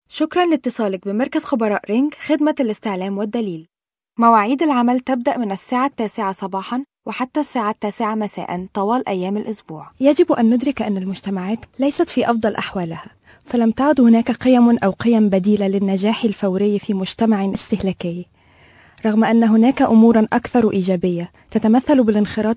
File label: Arabic